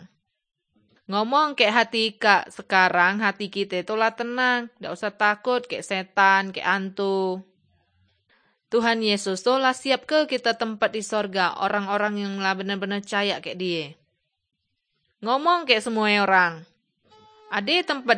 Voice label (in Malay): kabar baik good news malay bangka